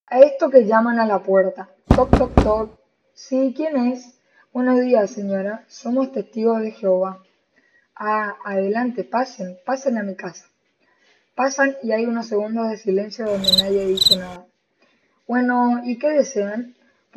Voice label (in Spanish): Chiste, segundo año experimenta con sonidos